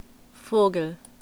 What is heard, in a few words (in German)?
"vogel", German